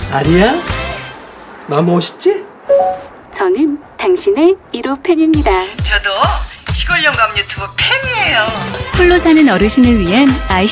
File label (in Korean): 밝은; sk하이닉스